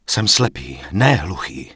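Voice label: Night Elf Male error item max count, Czech; wowpreklad; czwow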